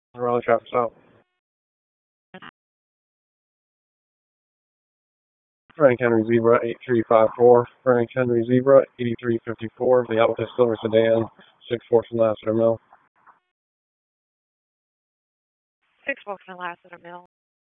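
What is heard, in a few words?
Police Northeast District Dispatch; Raleigh Police Northeast District Disp; wake p25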